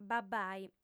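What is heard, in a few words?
"babbai", lingua sarda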